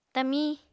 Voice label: "tamiñ", Apatani